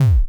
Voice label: Hurt; unity